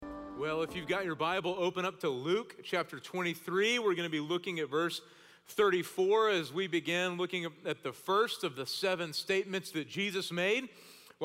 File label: Sermon English